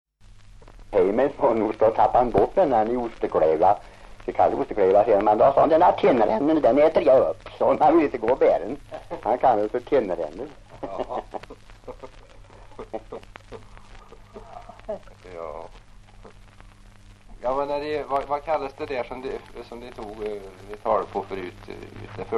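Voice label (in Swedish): Järnskog Värmland, dialekter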